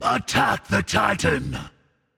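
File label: vox attack male; smite; zeus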